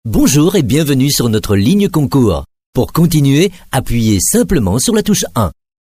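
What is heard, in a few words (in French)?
french Belgian male IVR; voice demos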